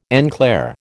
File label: "en clair", American